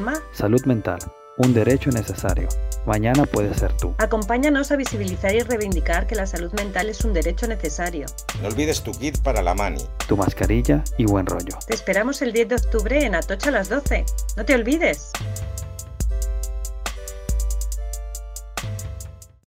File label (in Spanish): Cuna radio Marcha; FSMM